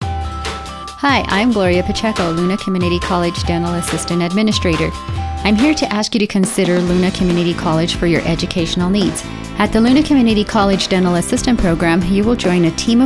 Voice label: commercial